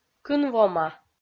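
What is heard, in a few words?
"кын вома", Komi, female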